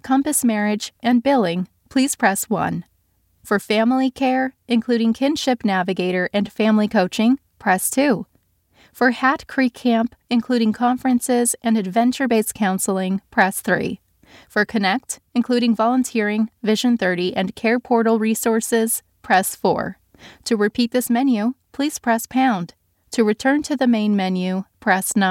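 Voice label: american voice actor telephone, Millennial American Female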